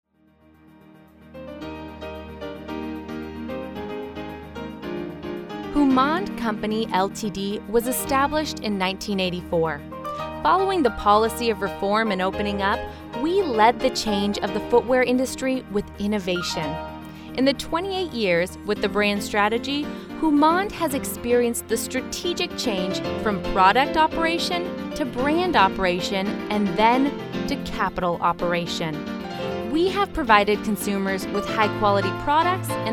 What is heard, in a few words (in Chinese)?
外籍女